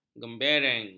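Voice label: "gembereng", Malay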